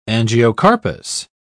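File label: "angiocarpous", British English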